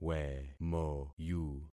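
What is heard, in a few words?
"wemoyu", concatenated syllables; Affixing experiment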